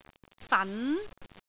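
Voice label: "fan2", Cantonese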